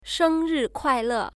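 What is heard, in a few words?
"shengrikuaile", Chinese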